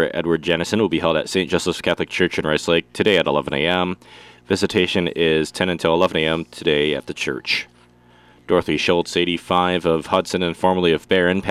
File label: Funeral Announcements